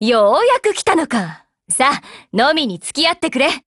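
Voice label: HELLO Japanese, Voice; GF Alarm; Excutioner buhuo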